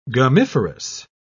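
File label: "gummiferous", British English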